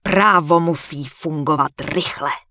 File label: Human Female Warrior NPC Greeting; wowpreklad; czwow